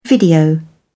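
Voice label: "video", British English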